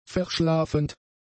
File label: "verschlafend", German; adjectives